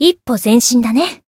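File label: Voice growth Japanese; isuka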